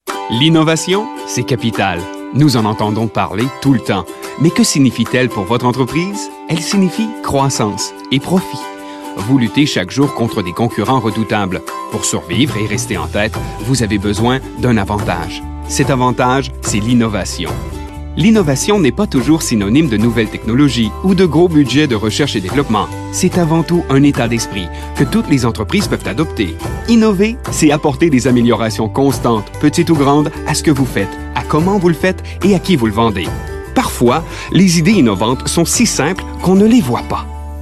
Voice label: french canadian narration